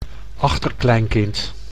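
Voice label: "achterkleinkind", Dutch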